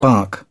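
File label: "bark", British English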